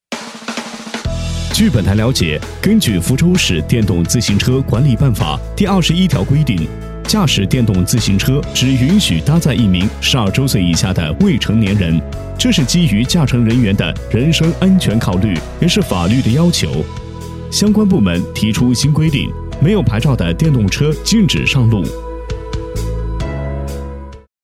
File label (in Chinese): 男 新闻配音; 福州电动车管理; 成熟浑厚; 科技时尚